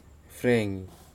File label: "nɨfregi", Nafe (Kwamera); n. kind of vine